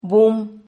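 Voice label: "bum", Spanish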